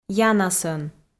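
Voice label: "янасӧн", Komi, female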